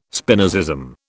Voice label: "Spinozism", British